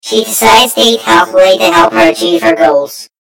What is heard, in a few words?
story voiceover; mission M2win; yumpod